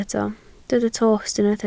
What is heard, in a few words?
"tost", welsh